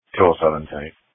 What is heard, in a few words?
Police Dispatch